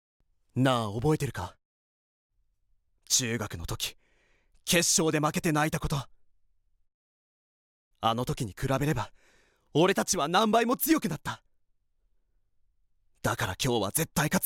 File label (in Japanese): 熱血キャラ